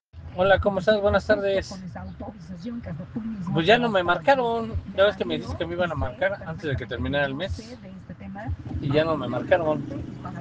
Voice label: whatsapp ptt